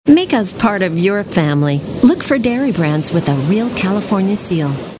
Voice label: friendly genuine mothers